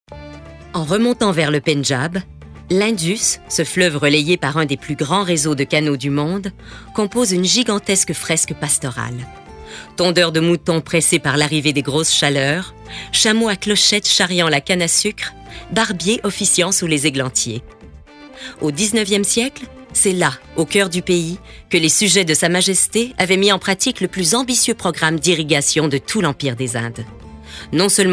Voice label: narration